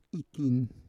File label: "ikin", Roon